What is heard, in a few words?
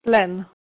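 "pleh1n", Proto-Indo-European; eastern origins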